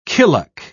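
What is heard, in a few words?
"killock", British English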